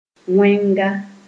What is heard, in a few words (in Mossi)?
"wẽnga", Moore